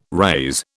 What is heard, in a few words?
"raze", British